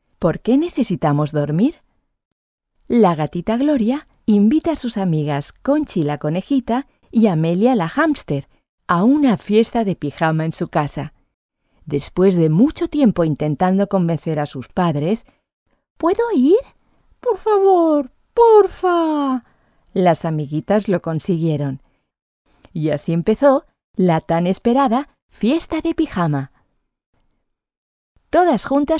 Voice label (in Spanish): fairytale es; gata